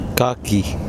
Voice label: ripe coconut, Kosraean